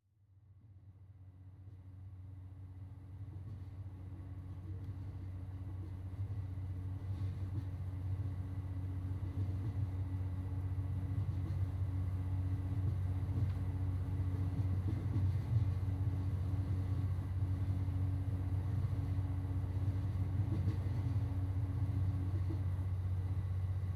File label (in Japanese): 寄り添いウィスパー、入眠導入; 無人のラウンジでゆったりと